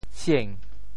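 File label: "sieng2", Teochew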